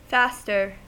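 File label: "faster", American English; pronunciations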